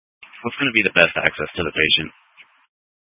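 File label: Incident Tactical 1, Scanner audio recording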